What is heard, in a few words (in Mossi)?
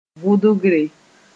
"vʋdgre", Mooré; injection